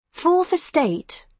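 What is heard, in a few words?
"fourth estate", British English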